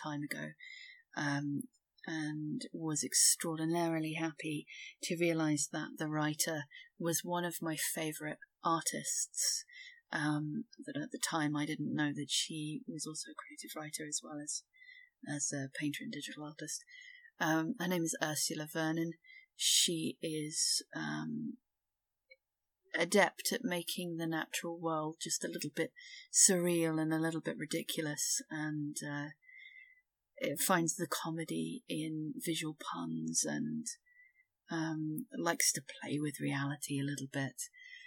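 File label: [F] Toad Words [Short Story][Non-Erotic][British]; Gearing up for Halloween; 'Bell Experiments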